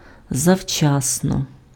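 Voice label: "завчасно", Ukrainian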